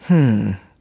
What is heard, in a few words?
neutral; opal